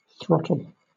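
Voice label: "throttle", British English; pronunciations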